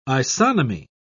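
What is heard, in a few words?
"isonomy", British English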